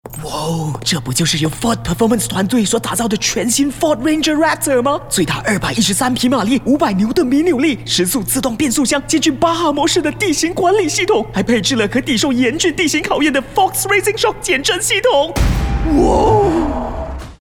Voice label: Mandarin - Character, Whisper; Ford